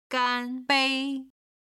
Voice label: "gan1bei1", Chinese